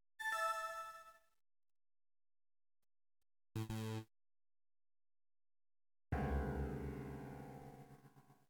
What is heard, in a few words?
maono amc2 neo; maonocaster